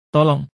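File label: "tolong", Indonesian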